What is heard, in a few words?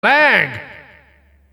enemy flag captured, announcer; tf2cciv; open fortress